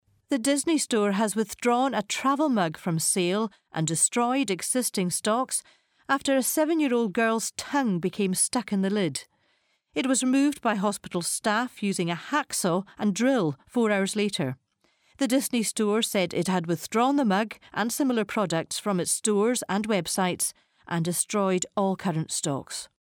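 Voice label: English Scottish female, scottish voice over talent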